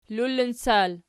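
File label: "lullincal" nominative, Archi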